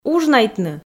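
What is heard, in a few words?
"ужнайтны", Komi, female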